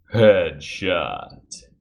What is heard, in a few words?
headshot, announcer